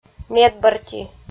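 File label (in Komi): "медбӧрти", Komi, female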